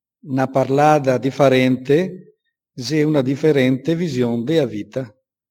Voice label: venetan